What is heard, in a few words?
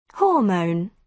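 "hormone", British English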